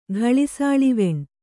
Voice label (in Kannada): "ಗಳಿಸಾಳಿವೆಣ್", Kannada